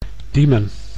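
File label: "Diemen", Dutch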